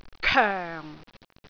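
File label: "koeng4", Cantonese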